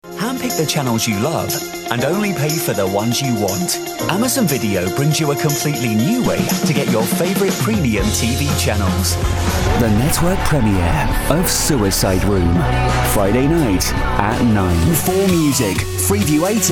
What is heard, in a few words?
male english promo compilation; english southern accents